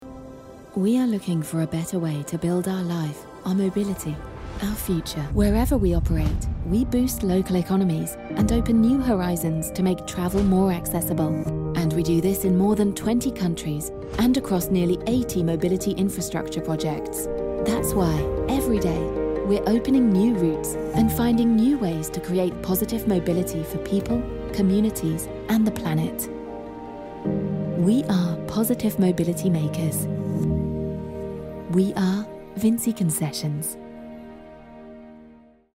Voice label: corporate film soft and smiling; vinci